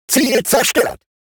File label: robot Pilots Tango down; World Of Warships